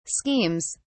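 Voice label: "schemes", British English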